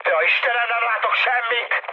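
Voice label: Hungarian searchlite mood high, speech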